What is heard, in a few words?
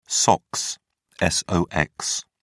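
"sox", English, British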